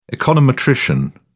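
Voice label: "econometrician", British English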